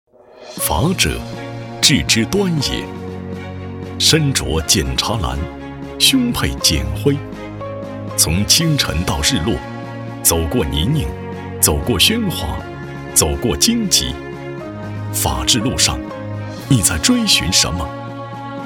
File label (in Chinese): 男 普法专题类; 温州检察